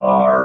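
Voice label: "are", robot voice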